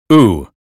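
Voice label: "blue", American English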